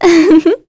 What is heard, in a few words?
vox, en; kingsraid; voices heroes